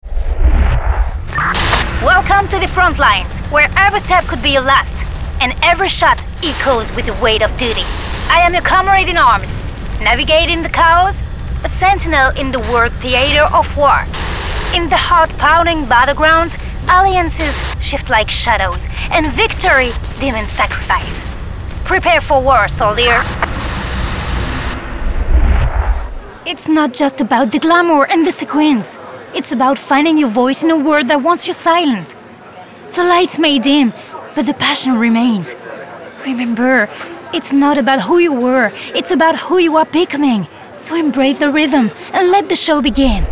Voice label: DUBBING ENGLISH